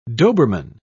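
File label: "doberman", British English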